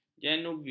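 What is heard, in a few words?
"janubi", Malay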